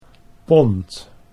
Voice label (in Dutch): "pond", Dutch